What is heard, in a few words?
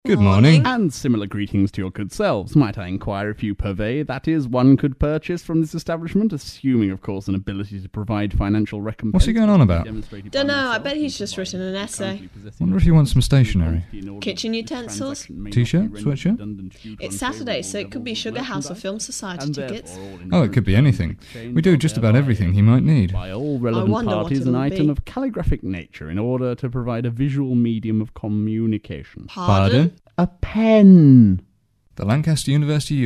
ad; bfm jingles ads RSL 1995